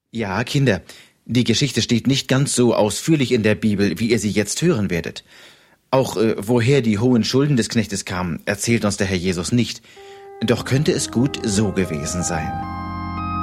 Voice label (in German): Hoerspiel; Der Mann, der nicht vergeben wollte